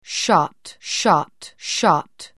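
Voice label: "shut", English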